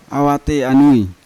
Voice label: "awate anui", Yawa; uncle